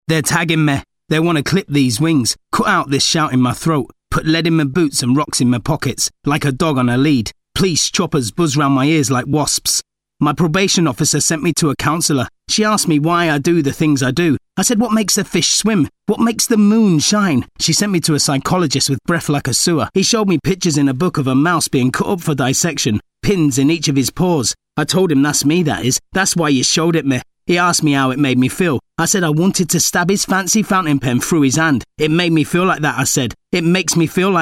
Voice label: Narration; Vocal Point

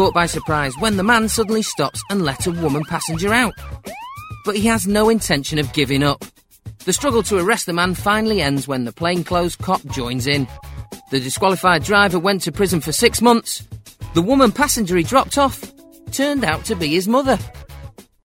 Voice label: Doc Narration Police; Compilation – Various Styles

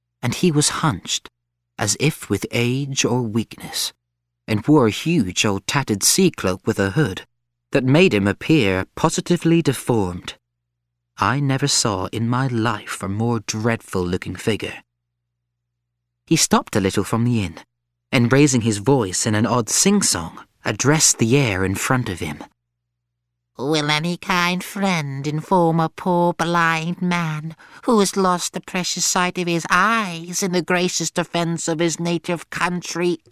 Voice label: Narration; Treasure Island; Vocal Point

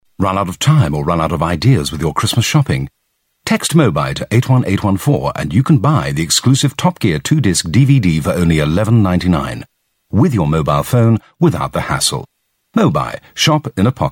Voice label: Commercial; Mobuy; Vocal Point

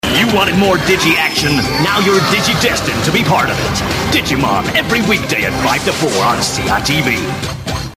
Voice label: Kids promo

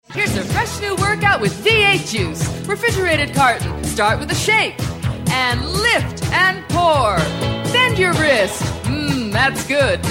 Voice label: Commercial; V8; Vocal Point

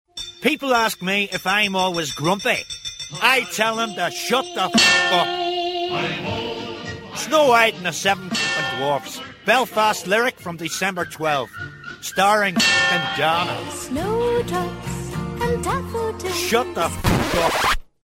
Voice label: Commercial; Belfast lyric; Vocal Point

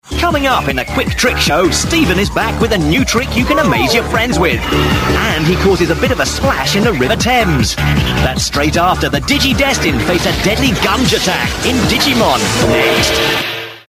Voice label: Kids promo

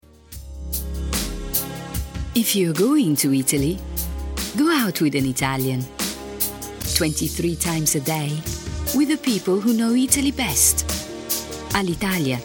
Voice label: English Commercials

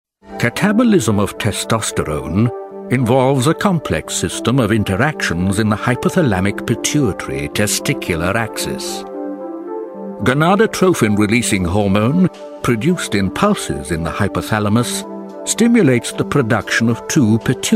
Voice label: Medical Narration

